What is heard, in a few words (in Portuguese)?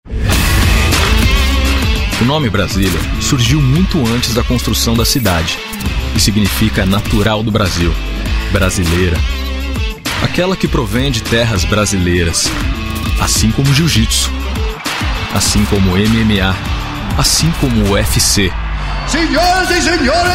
Clássica Grave; UFC Natural do Brasil